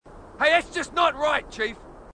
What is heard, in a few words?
marine, aussie; h2dialogue